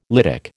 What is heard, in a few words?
"lytic", American